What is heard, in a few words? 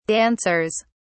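"dancers", British English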